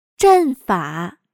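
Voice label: "阵法", Chinese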